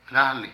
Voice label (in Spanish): Tela, Zapoteco de Petapa; Ropa; Vocabulary